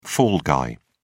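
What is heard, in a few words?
"fall guy", British English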